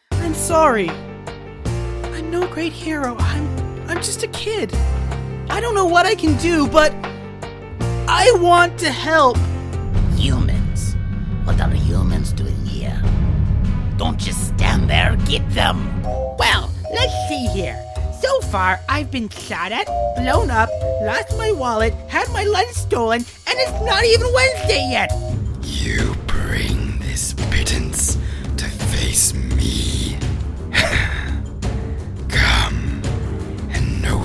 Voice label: animation